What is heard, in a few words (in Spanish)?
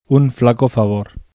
f frase un flaco favor bizkaia